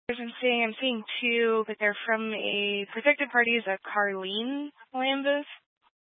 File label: Sheriff Dispatch, scanner